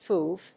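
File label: "FUV", English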